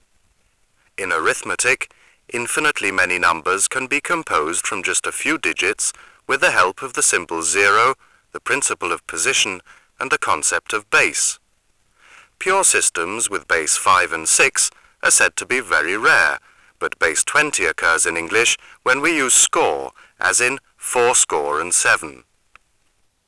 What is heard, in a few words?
speech rerecorded